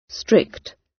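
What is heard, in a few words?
"strict", British; Crime and punishment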